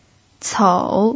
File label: "cou3", pinyin